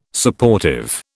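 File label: "Supportive", British English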